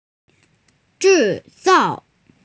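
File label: "manufacture", female; zhi4zao4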